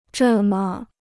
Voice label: "这么", Chinese